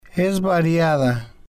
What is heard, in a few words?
"es Variada", spanish